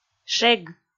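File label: "шег", Komi, female; kpv audio dict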